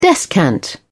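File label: "descant", British English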